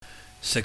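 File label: "sik", Cantonese, low level tone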